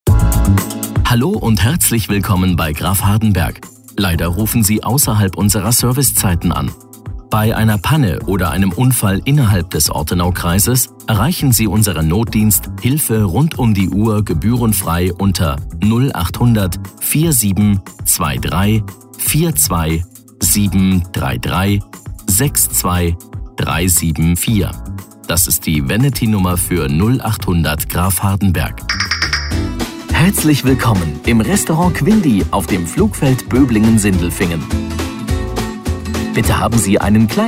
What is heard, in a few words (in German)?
telefonansagen sprecher de